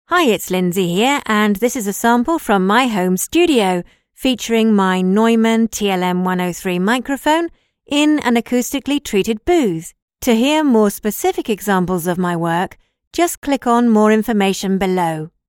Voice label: Mic test

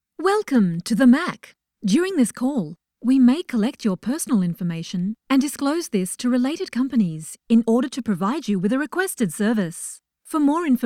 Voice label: IVR On Hold

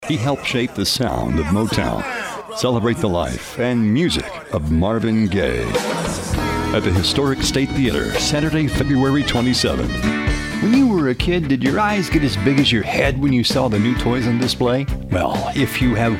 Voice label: Straight Read

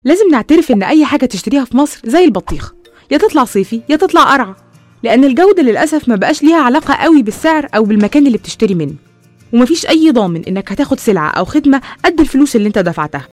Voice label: Egyptian Arabic ad

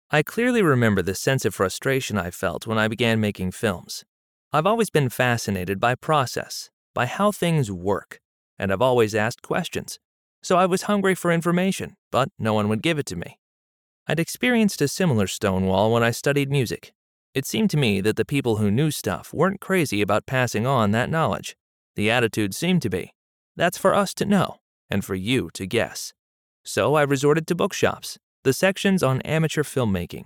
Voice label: Audiobook Nonfiction

